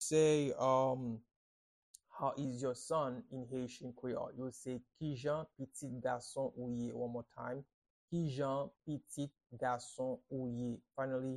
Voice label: How is your son in Haitian Creole Kijan pitit gason ou ye pronunciation by a Haitian